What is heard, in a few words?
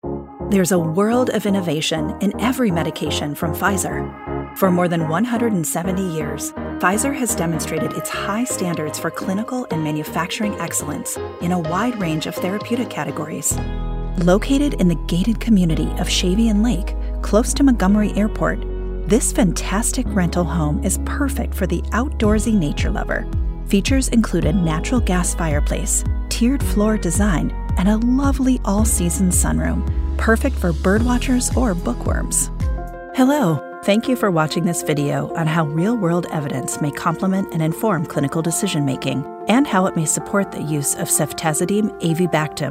Corporate Elearning Explainer